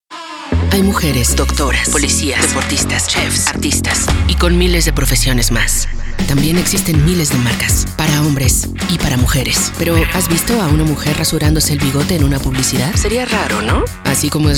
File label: manifiesto voice girls